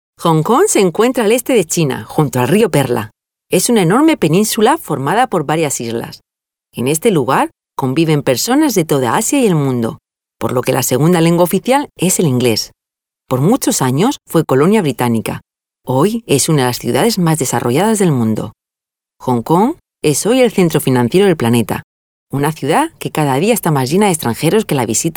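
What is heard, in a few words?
Spanish female corporate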